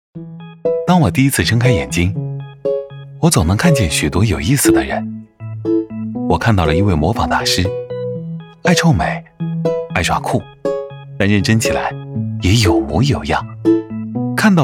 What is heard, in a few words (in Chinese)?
男-科技讲述-年轻轻松; 小米